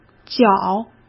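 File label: "缴", Mandarin Chinese; hsk; chinese-flashcards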